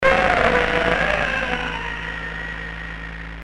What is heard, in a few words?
argh, Commodore64 speech; Impossible mission; Commodore64 speech pack variations